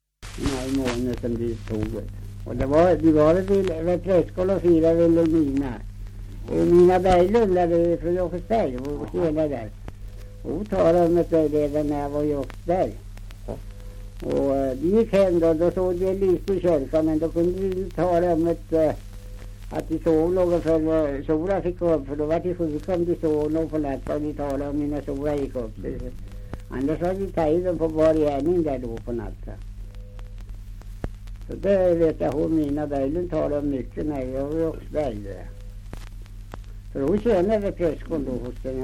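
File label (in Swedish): Björnlunda Södermanland, dialekter; Folkminnen; Svenska sagor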